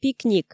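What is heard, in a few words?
"пикник", Komi, female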